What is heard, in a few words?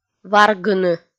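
"варгыны", Komi, female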